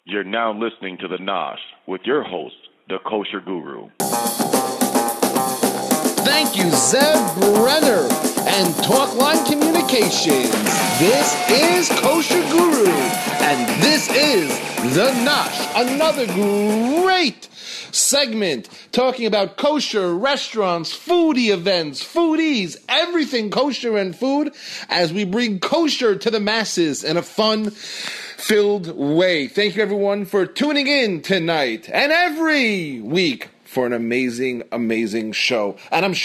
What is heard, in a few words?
Voice over